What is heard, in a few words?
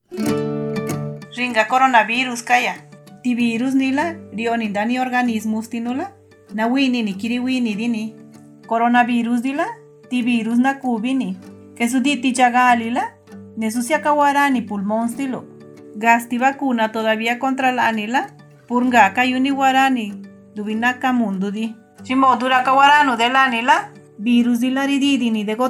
covid zapoteco istmo